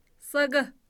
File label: evening, kham